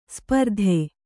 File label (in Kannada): "ಸ್ಪರ್ಧಿ", Kannada